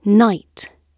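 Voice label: "night", British English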